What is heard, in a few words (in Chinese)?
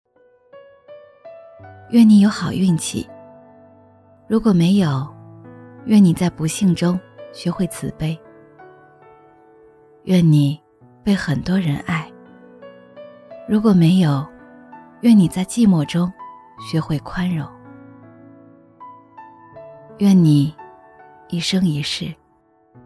女 旁白; 愿你慢慢长大